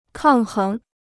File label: "抗衡", Chinese